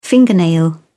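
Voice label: "fingernail", British English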